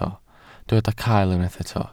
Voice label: "cael", Welsh